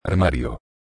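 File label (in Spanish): "armario", español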